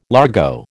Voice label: "largo", American

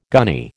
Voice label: "gunny", American

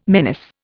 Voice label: "menace", Indian

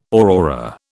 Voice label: "aurora", British

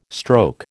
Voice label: "stroke", American

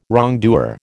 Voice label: "wrongdoer", American

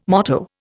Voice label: "motto", Indian

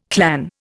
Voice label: "clan", Indian

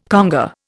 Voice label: "conga", Indian

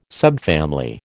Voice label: "subfamily", American

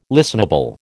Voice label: "listenable", American

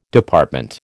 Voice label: "Department", American

